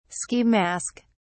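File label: "ski mask", British English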